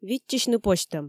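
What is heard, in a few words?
"виччысьны позьтӧм", Komi, female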